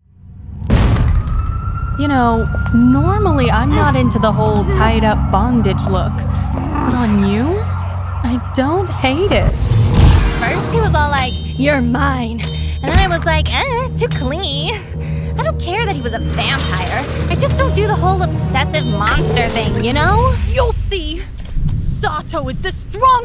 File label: animation